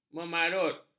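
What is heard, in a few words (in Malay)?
"memarut", Malay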